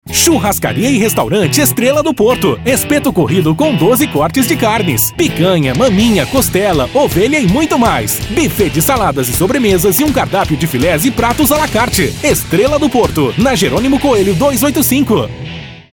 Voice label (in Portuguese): Spot